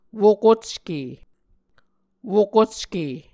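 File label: "wokocke", Muscogee word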